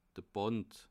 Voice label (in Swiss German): "band", alsace